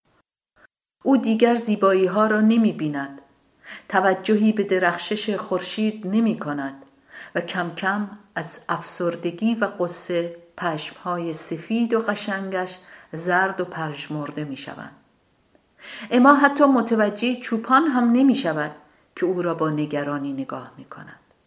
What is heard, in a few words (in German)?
farsi